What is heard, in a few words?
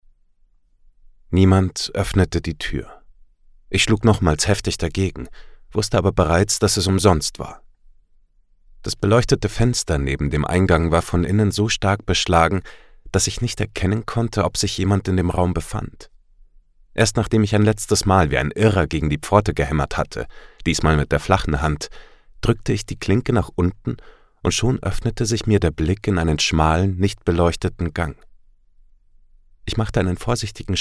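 German Audiobook Male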